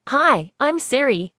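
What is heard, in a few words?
Australian English; iOS 26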